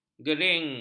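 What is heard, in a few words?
"gering", Malay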